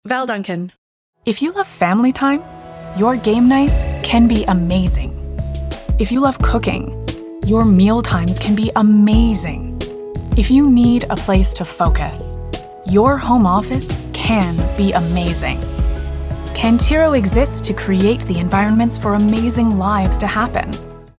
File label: Commercial